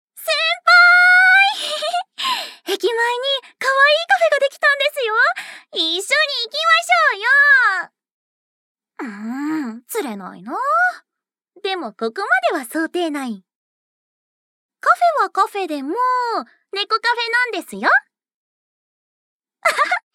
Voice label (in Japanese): 可愛い後輩女子